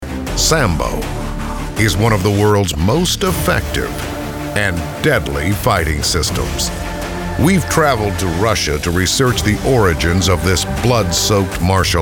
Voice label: men narration; Warm to fuzzy, bigger voice.